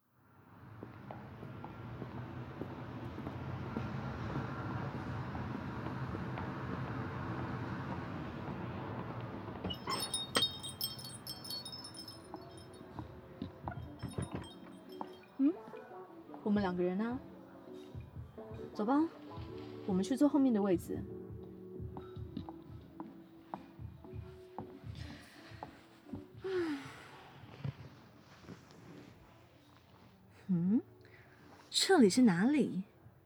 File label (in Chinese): ASMR 女上司, 淫语; KU100; 拜託酷酷的女上司做色色的事